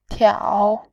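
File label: "tiao", Mandarin Chinese, third tone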